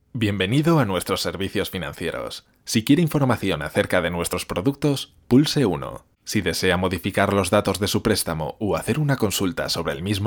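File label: IVR, voiceover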